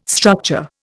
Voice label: "structure", Indian

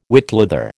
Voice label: "whitleather", American

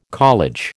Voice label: "college", American

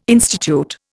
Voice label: "institute", Indian

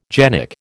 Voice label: "genic", American

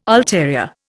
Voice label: "ulterior", Indian

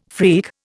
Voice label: "freak", Indian

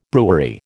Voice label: "brewery", American

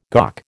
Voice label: "GOC", American

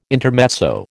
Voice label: "intermezzo", American

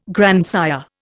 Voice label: "grandsire", Indian

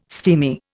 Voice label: "steamy", Indian

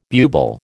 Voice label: "bubal", American

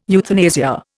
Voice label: "euthanasia", Indian

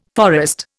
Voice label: "forest", Indian

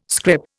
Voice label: "scrip", Indian

